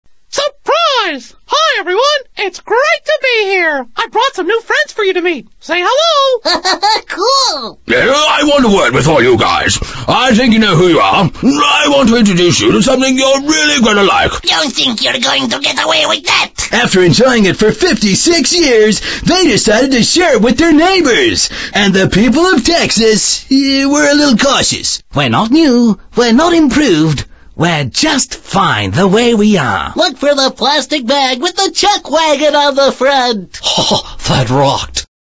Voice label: AU Mid Atlantic English English Character, English Australian Male